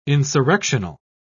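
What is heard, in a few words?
"insurrectional", British English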